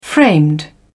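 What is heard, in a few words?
"framed", English, British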